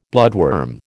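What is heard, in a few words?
"bloodworm", American English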